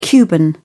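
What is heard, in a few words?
"cuban", British English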